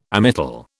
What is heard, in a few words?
"Amytal", British